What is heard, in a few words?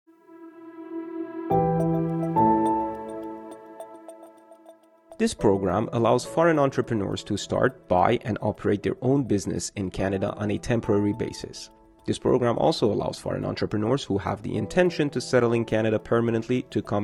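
English, voices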